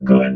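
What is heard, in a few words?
"good", robot voice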